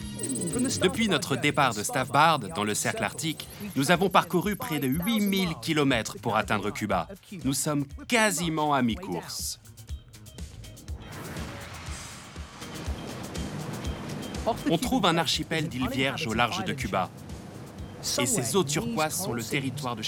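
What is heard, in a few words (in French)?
Extrait voix voice-over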